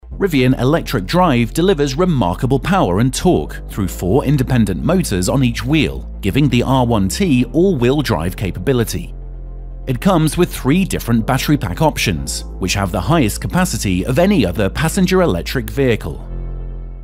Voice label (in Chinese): 外籍英男 电动汽车介绍 活力干音